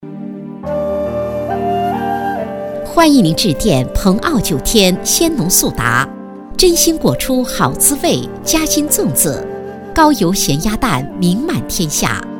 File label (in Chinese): 女 彩铃; 鲜农速达